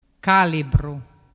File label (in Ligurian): "calibru", dialettu